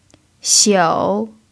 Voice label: "xiu3", Mandarin pinyin